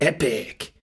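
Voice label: voice Epic; wild water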